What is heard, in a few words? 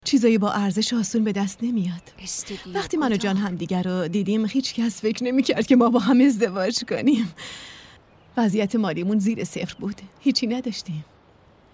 dub